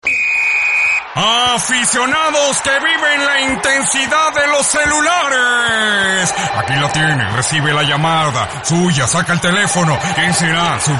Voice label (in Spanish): futbol narracion; Funny